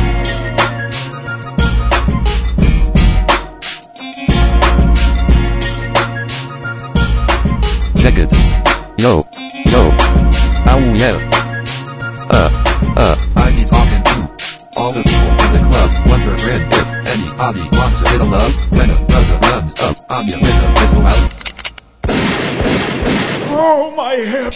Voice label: Jaws rap, English DekTalk And FlexTalk; O jaws também canta!